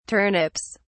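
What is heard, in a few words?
"turnips", British English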